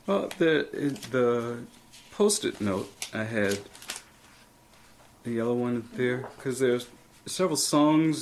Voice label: USA South Carolina Sea Islands Gullah Male 40s

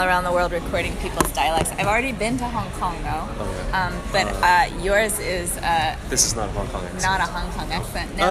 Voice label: Hong Kong

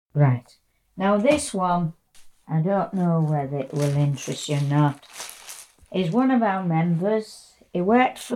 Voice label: England Yorkshire Female 70s

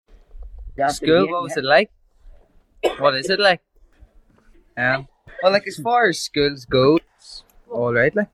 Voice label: Belfast Monologue Male 29

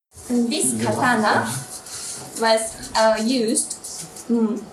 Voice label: Japanese Tokyo; Samurai Museum

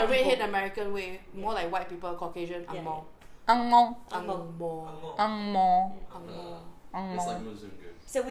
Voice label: Conversations In Singlish

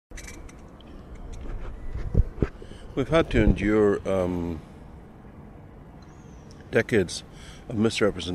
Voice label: Belfast Professor; Gay Cat Lover

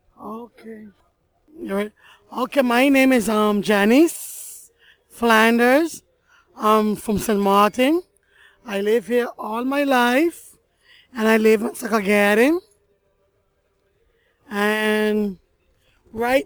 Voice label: Caribbean St Martin Female 40s Monologue

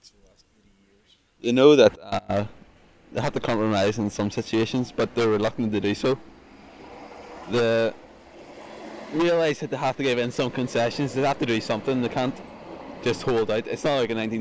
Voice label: Ireland Belfast males 20s monologue

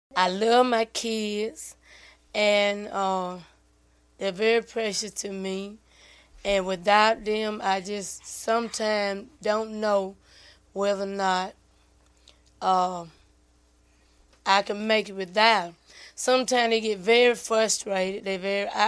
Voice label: Georgia Trailer Park Black Female Age 34

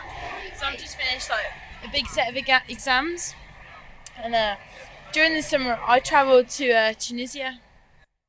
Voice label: Birmingham to Plymouth UK female 20s

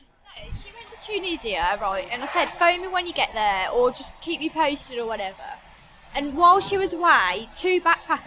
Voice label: Birmingham to Plymouth White Woman 19 20

